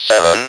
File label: speak and spell "SEVEN"; NUMBERS